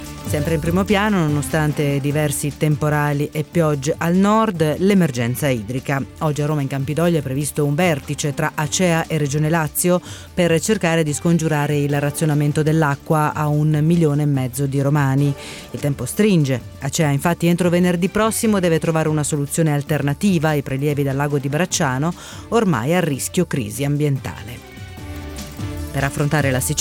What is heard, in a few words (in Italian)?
intervista